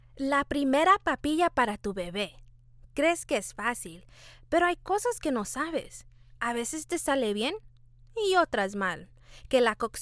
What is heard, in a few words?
Commercial Spanish; Voiceover Actor